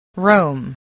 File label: "roam", English spelling